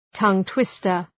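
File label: "tongue-twister", English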